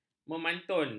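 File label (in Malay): "memantun", Malay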